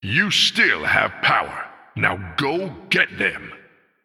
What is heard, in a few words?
Male Human Behind